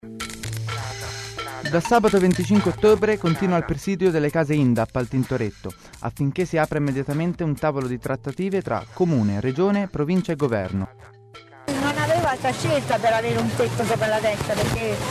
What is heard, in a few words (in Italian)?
spot casa